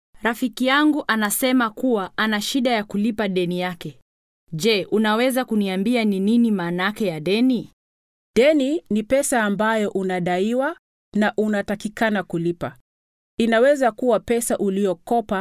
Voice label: debt swahili

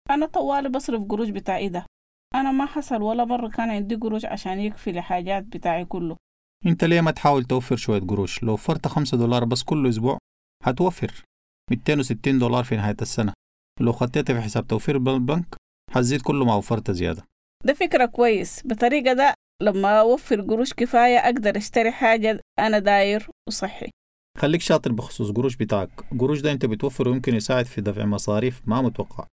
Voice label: saving money sudanese

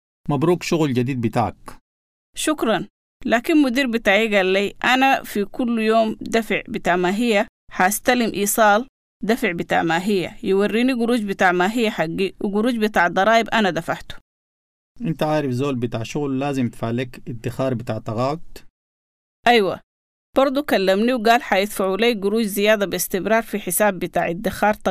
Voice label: working in australia sudanese

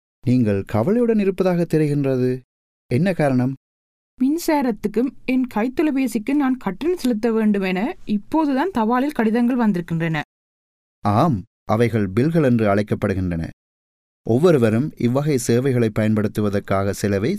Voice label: paying bills tamil

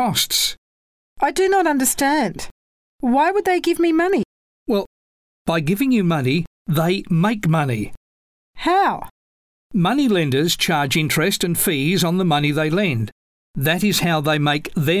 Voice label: credit english

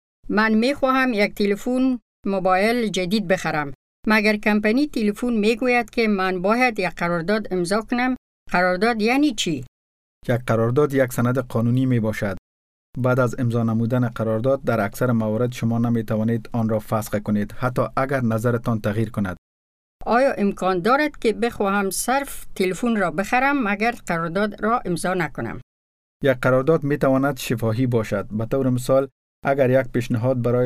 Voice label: contracts dari

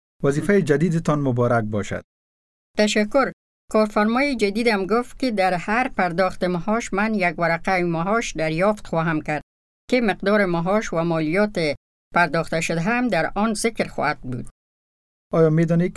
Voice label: working in australia dari

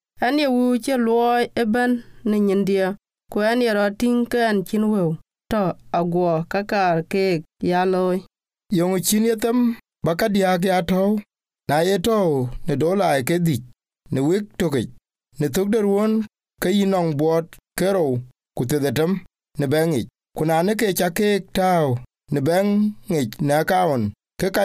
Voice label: saving money dinka

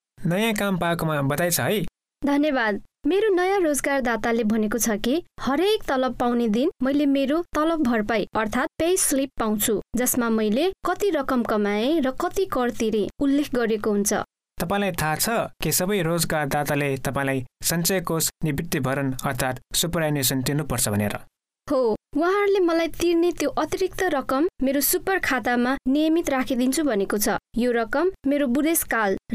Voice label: nepalese; working in australia

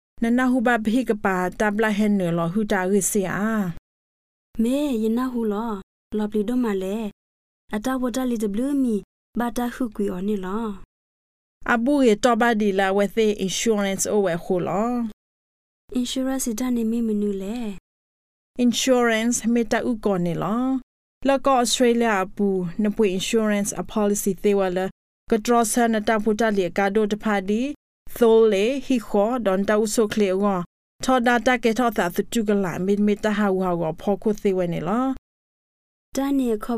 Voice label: insurance, karen

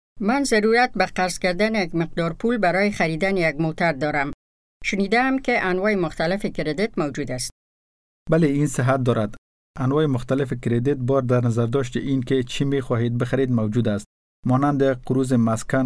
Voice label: types of credit dari

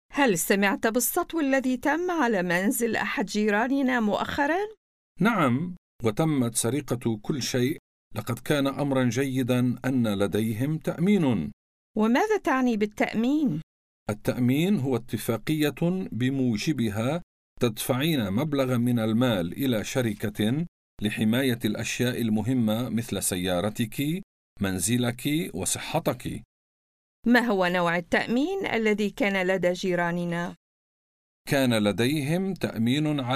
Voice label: insurance arabic

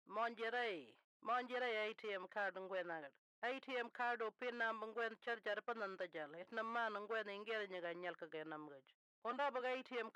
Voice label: arrernte

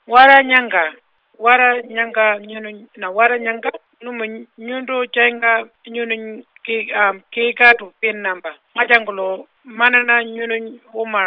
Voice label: gurindji

